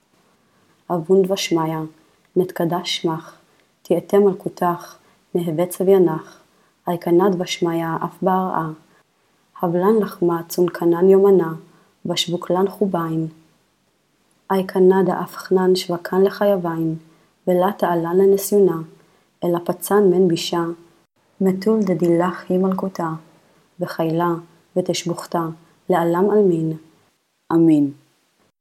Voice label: Female Spoken, Lords Prayer Narrated, Aramaic